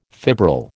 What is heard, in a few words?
"fibril", American